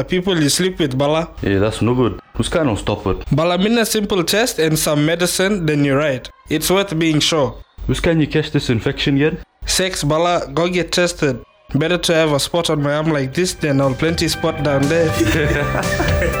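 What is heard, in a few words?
Male syphilis ad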